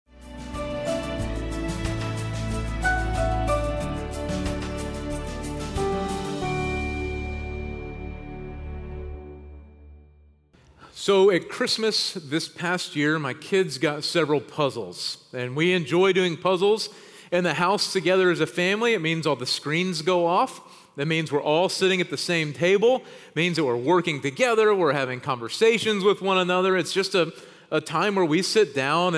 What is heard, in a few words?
Sermon English